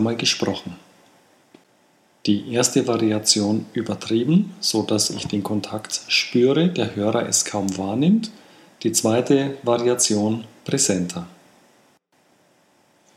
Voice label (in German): Fluency Frikative Plosive